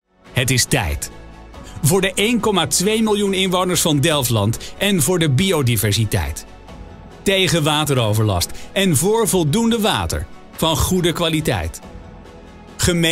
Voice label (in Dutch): spoken word activistisch; waterschap Midden Delfland